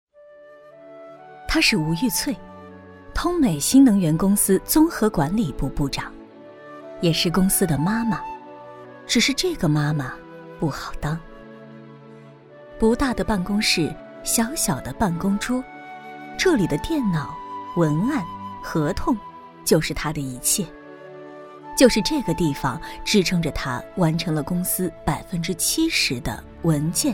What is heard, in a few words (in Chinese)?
女, 人物专题; 生命